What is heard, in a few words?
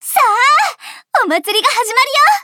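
start, Japanese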